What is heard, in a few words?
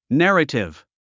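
"narrative", English; 発音 nǽrətiv ナラティブ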